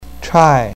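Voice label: "chai4", Mandarin Chinese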